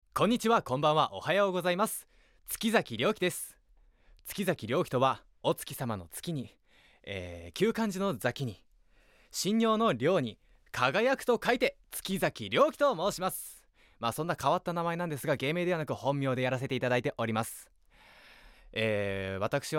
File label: Free Talk